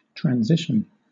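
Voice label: "transition" English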